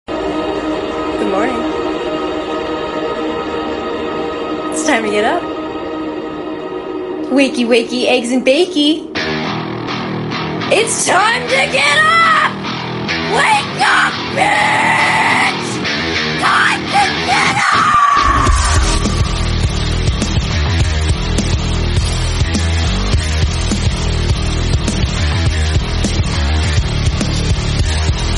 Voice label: good morning its time to wake up alarm tone ringtone